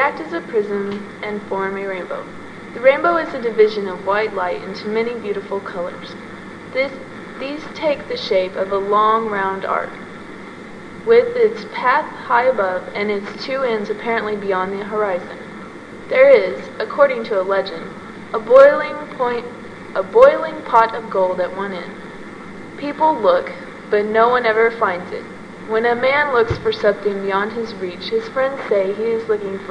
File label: missouri, dialects